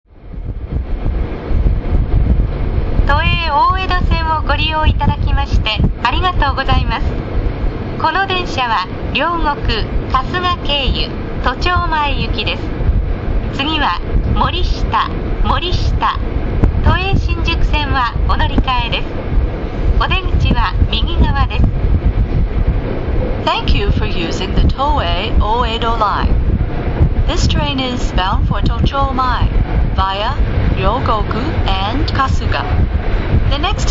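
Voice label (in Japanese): 都営大江戸線 次は森下, 車内放送; 清澄白河〜森下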